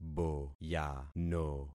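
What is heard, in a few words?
"boyano", concatenated syllables; Affixing experiment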